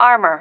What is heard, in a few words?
"armour", female